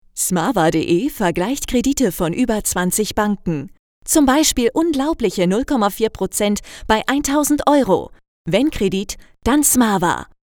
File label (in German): sprecherin; smava